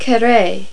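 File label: "carre", French; Virtual Casino: Secret Fantasies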